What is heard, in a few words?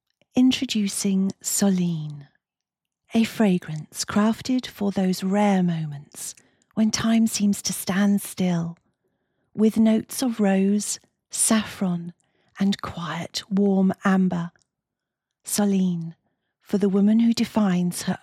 LUXURY BRAND Perfume Fashion, voiceovers